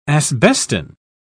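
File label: "asbestine", British English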